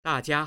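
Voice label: "da jia", Chinese, tones 4 and 1, male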